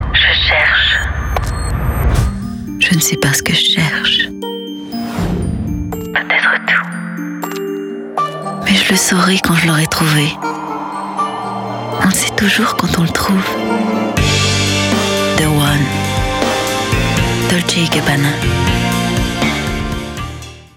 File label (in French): voix publicitaire élégante sensuelle et sophistiqué, Sophistiquée - Sensuelle - Français normatif; Dolce & Gabana